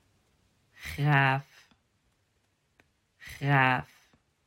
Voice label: "graaf" "graaf", vergelijkende woorden

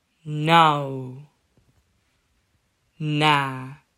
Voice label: "nou" "na", vergelijkende woorden

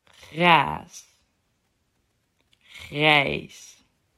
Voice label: "graas" "grijs", vergelijkende woorden

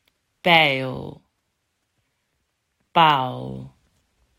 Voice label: "pijl" "paal", vergelijkende woorden

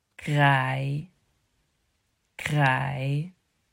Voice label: "kraai" "kraai", vergelijkende woorden

